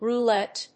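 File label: "roulette", English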